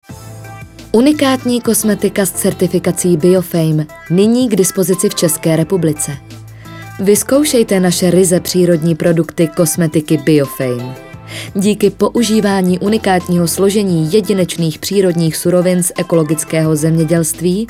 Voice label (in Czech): reklama